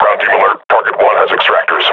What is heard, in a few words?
sees grenades, combine grunt